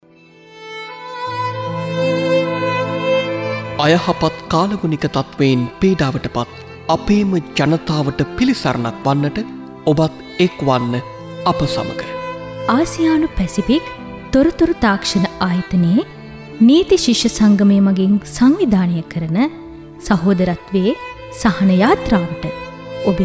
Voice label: male sinhala